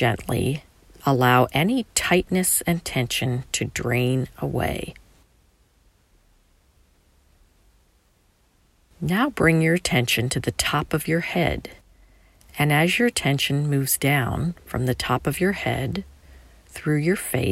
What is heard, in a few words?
Meditation Body Scan